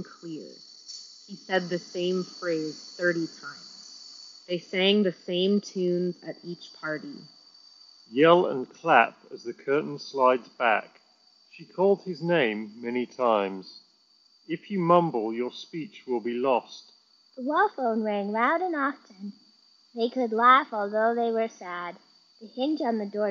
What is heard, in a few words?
Headphones Street microphone sample; Bose Quietcomfort